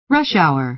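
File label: "rush hour", American English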